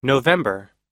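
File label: "november", US English; November – Novembro; Datas em Inglês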